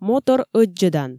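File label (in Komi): "мотор ӧддзӧдан", Komi, female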